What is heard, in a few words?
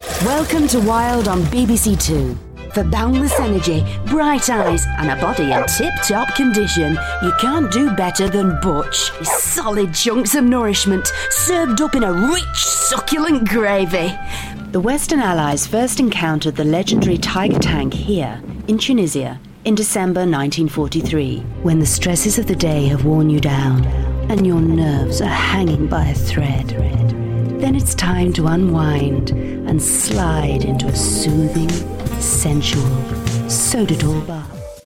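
British, voice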